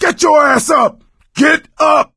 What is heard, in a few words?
revive friend loud; voice; source engine; left 4 dead 2